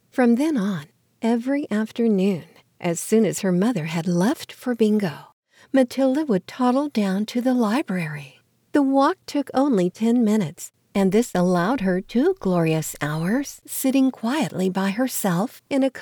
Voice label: Childrens book